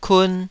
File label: "kun2", Taiwanese syllable